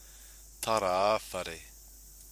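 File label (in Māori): "tara a whare", Māori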